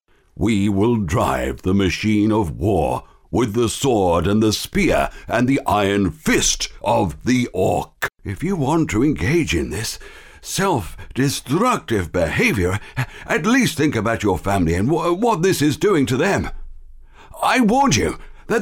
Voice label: computergames uk voiceover